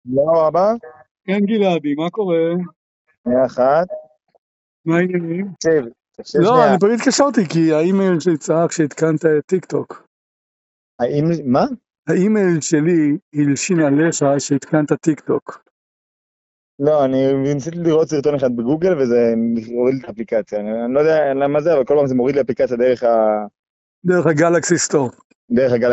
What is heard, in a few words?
Call recording